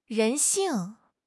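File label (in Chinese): "人性", Chinese; HSK6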